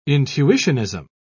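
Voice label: "intuitionism", English, uk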